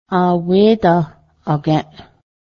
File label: just pretend, Cayuga